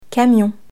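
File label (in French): "camion", French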